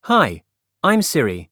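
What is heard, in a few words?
British English; iOS 26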